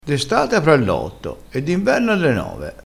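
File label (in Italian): "criente", dialettale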